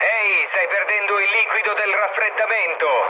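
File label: Italian damaged water mood low, speech; War Thunder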